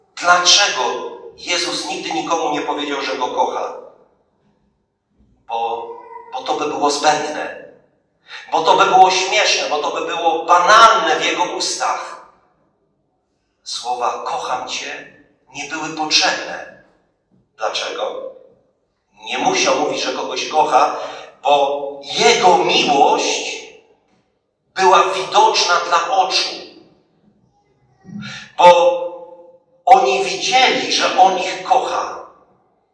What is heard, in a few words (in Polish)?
Kazanie; Wielki Czwartek